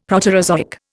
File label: "Proterozoic", Indian; Dictionary